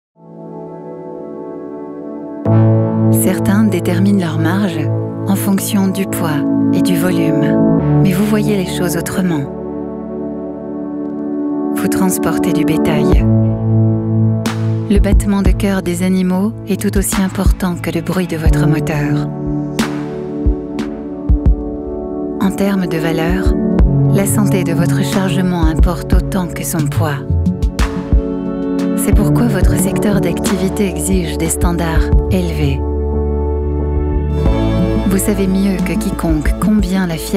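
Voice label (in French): pub voix off, voix off femme; scania; betail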